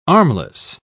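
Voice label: "armless", British English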